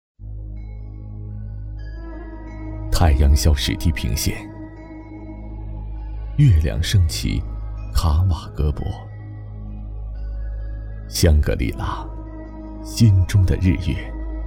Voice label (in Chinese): 男, 广告, 银行; 富滇银行香格里拉分行